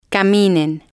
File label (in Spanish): caminen, Spanish